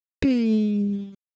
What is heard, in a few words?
Ewe, ideophones; Color Visual